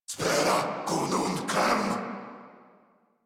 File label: Attack Corrupted Grineer Male; Warframe; Battle Barks